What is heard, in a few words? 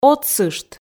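"отсышт", Komi, female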